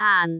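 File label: "aan2", Cantonese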